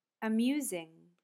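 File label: "amusing", English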